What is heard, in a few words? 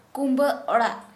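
Santali, female, hut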